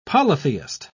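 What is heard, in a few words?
"polytheist", British English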